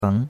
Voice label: "beng2", chinois; Cours de Chinois

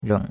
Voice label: "reng3", chinois; Cours-de-Chinois

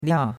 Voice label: "lia4", chinois; Cours-de-Chinois

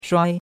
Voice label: "shuai1", chinois; Cours-de-Chinois

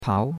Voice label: "pao2", chinois; Cours de Chinois

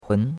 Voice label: "hun2", chinois; Cours-de-Chinois

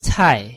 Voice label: "cai4", chinois

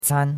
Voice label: "can1", chinois; Cours-de-Chinois

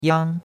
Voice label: "yang1", chinois; Cours-de-Chinois

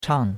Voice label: "chang4", chinois; Cours-de-Chinois

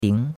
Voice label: "ding2", chinois; Cours de Chinois

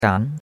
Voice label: "gan2", chinois; Cours de Chinois

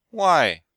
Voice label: "wai4", chinois; Cours de Chinois

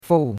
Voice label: "fou4", chinois; Cours-de-Chinois

